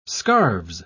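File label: "scarves", British English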